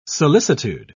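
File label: "solicitude", English, British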